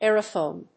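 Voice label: "Aerophone", English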